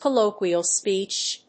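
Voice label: "colloquial speech", English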